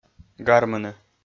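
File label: "гармыны", Komi, male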